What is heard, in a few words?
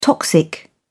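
"toxic", British English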